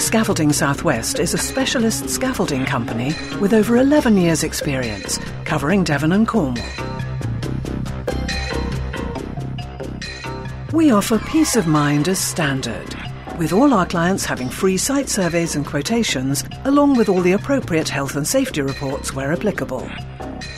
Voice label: on-hold